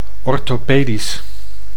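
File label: "orthopedisch", Dutch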